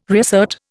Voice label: "reassert", Indian

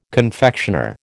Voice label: "confectioner", American

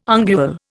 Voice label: "ungual", Indian

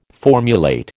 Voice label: "formulate", American

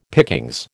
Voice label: "pickings", American

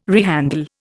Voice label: "rehandle", Indian

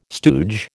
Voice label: "stooge", American

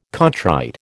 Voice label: "contrite", American

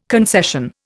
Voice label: "concession", Indian

